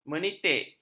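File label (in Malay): "menitik", Malay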